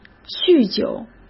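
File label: "酗酒", Mandarin Chinese; chinese flashcards; hsk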